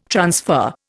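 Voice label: "transfer", Indian English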